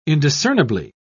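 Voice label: "indiscernibly", British English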